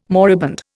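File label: "moribund", Indian English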